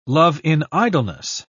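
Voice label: "love-in-idleness", British English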